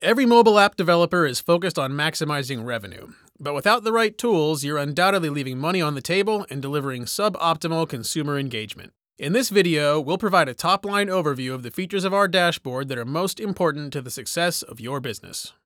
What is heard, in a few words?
U87i Voice